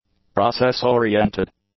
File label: "process-oriented", english; adjectives